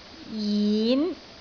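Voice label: "jin2", Cantonese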